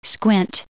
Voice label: "squint", English

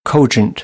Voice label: "cogent", American; AmericanBritish

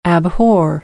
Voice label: "abhor", American; AmericanBritish

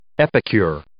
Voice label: "epicure", English

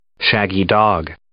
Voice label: "shaggy-dog", English

